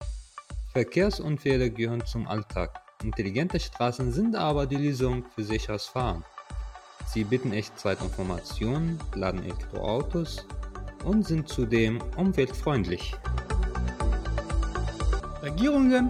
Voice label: radio spot; Smart Street